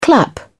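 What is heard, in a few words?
"clap", British English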